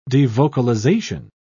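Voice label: "devocalization", British English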